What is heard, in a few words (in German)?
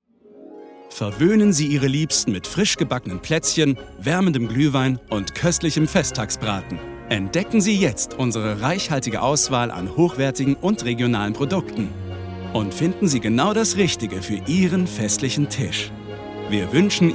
Werbung Feiertage